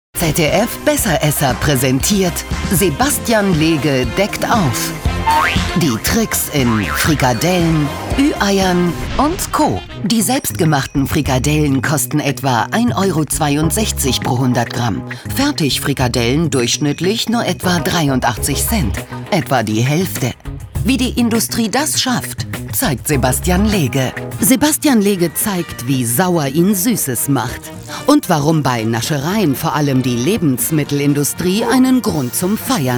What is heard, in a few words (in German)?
Doku; Sebastian Lege; Besseresser; LOFT VOICES